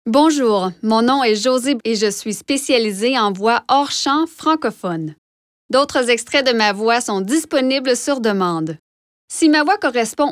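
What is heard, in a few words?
French Canadian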